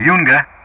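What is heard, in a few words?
"Yunga", English; Ka-50; Player Callsign; DCS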